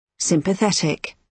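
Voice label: "sympathetic", British English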